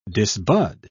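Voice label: "disbud", British English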